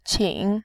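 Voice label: "qin", Mandarin Chinese, third tone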